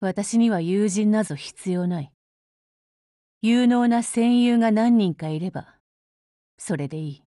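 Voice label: DIALOGUE, Japanese; Beowulf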